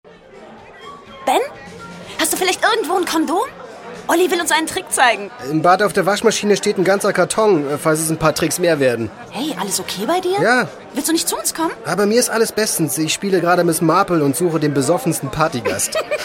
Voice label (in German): Schauspiel Variabel